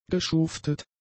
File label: "geschuftet", German; adjectives